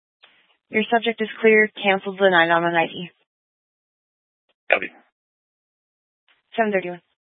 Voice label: Police Dispatch, scanner